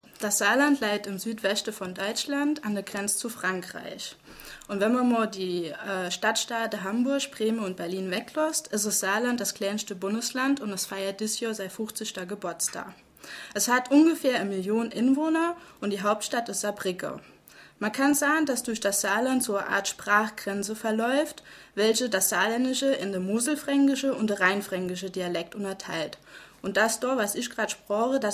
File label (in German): Saarlaendisch